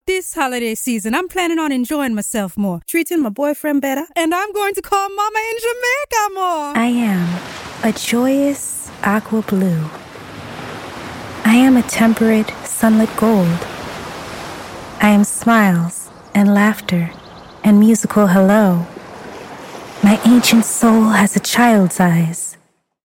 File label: Caribbean Accent Voice Overs